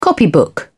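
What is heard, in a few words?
"copybook", British English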